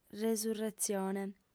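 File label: "resurretzione", Sardinian female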